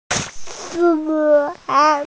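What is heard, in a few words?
"suan1", Mandarin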